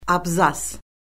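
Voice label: "abzas", Azerbaijani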